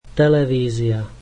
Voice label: slovak television